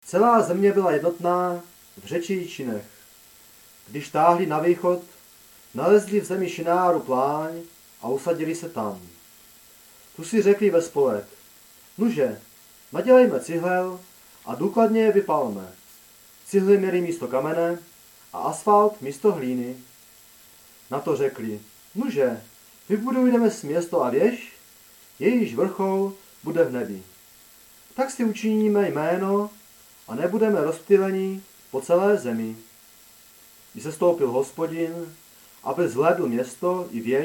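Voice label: Czech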